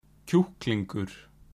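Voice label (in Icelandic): "kjúklingur", Icelandic; matarhefti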